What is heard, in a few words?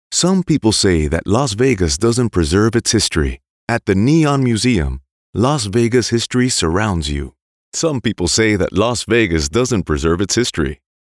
ENG Narration; Neon Museum